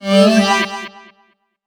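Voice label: effects talk, Funky Vocals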